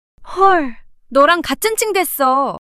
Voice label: surprise, monologue